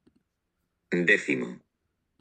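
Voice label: "decimo", Spanish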